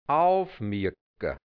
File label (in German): "aufmirka", hianzisch; auf der Hut sein; notieren